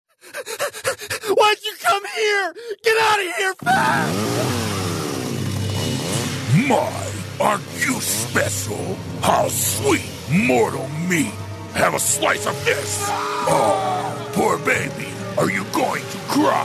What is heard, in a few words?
slasher promo